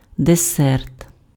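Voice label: "десерт", Ukrainian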